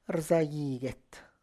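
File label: Tlacochahuaya Zapotec; inflate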